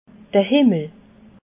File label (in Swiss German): "himmel", alsace